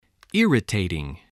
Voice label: "irritating", voice

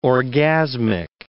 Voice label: "orgasmic", English word

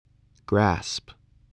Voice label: "grasp", voice

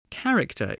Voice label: "character", spelling

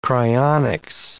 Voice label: "cryonics", english

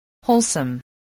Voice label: "wholesome", voice, english

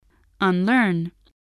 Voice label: "unlearn", English spelling voice

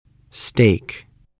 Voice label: "stake", voice

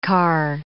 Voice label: "car", English

